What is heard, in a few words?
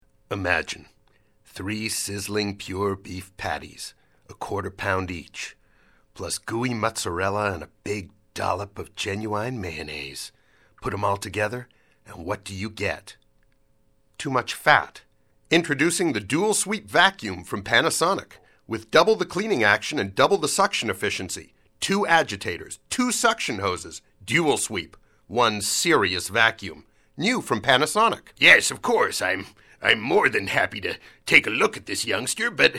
commercial male